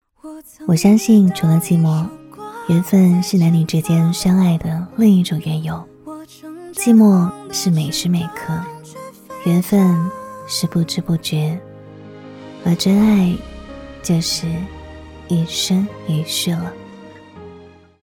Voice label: 情感电台一句话

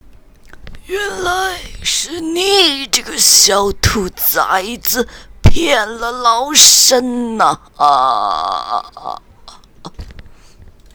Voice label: 老太婆 垂死挣扎

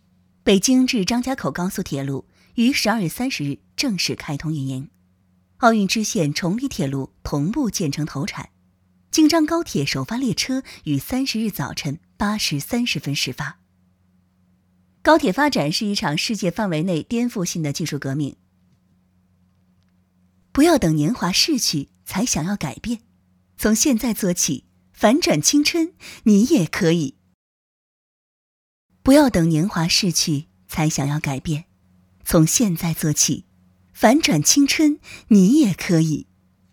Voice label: 反转青春旁白

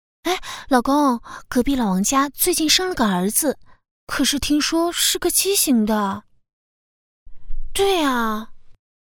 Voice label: 成人妻子

